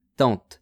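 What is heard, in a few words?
"tante", French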